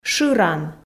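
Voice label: "шыран", Komi, female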